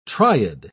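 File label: "triad", English word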